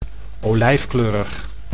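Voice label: "olijfkleurig", Dutch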